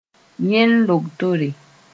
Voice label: "yẽn logtore", Mooré